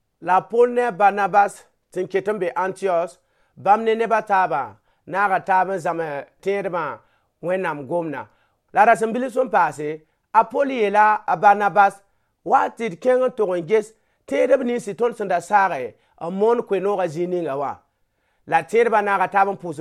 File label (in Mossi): Mossi Moore; L’esprit guide Paul; God's Spirit Leads Paul